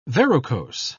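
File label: "verrucose", British English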